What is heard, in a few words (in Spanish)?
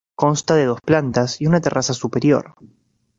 "superior", spanisch